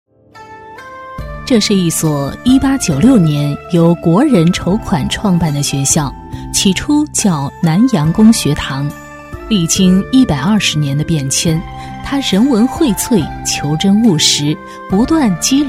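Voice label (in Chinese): 女 专题（沉稳的诉说 品质感）, 国语女声配音; 母校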